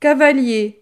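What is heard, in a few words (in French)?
"cavalier", French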